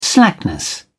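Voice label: "slackness", British English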